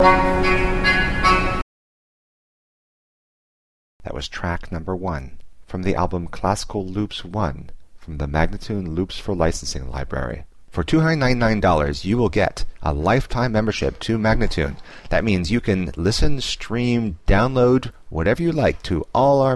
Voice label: american baroque spoken; Loops For Licensing; Classical Loops 1; MagnaLoops